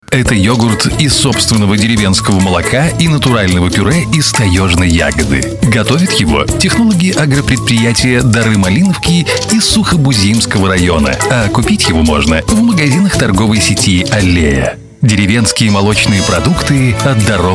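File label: Belarusian, commercial; GoLocalise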